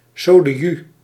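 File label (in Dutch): "sodeju", Dutch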